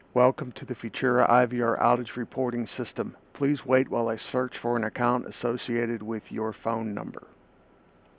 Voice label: Voice Greeting Message